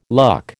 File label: "luck", American